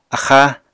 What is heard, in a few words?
"axáa", Apatani